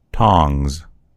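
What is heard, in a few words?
"tongs", American English; gcompris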